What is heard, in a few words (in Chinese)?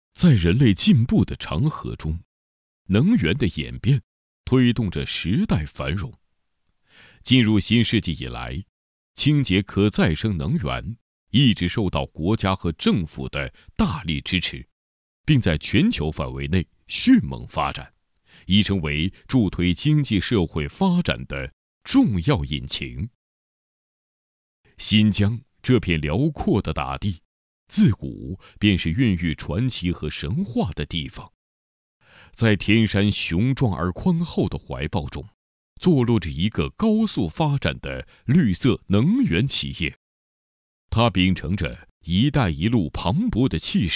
企业宣传, 厚重; 新疆新华水电